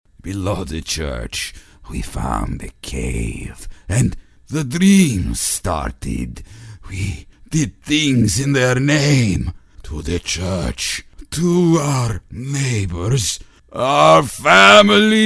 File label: Vampire, Reluctant & Afraid; animation